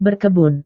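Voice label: "berkebun", Indonesian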